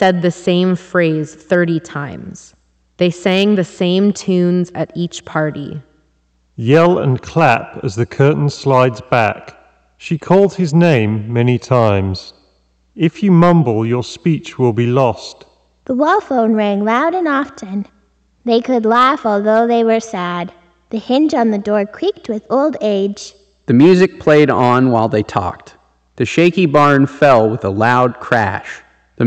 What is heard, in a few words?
Reverb microphone sample; JBL Quantum 100P